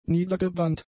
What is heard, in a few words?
"niedergebrannt", German; adjectives